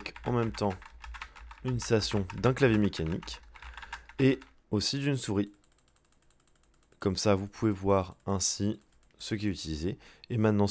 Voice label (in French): cherry um 9 pro micro cardioide nuisance